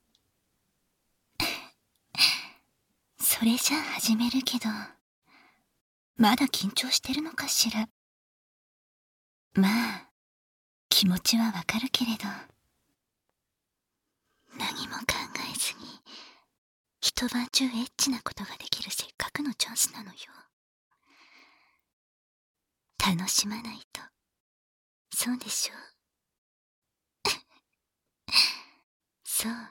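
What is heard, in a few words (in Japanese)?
囁き手コキ（バイノーラルマイク 効果音なし）; こっそり囁き手コキで射精管理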